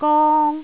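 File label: "gong1", Jyutping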